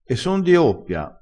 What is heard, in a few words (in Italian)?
"di oppia", crespinese